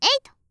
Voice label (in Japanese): "エイト", カウント